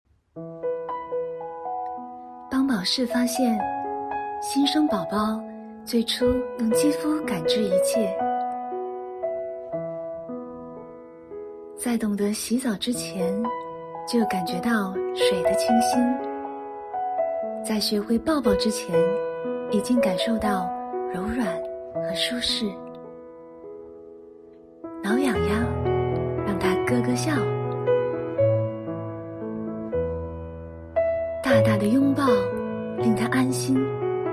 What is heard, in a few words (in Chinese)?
母婴类广告; 母婴类广告帮宝适广告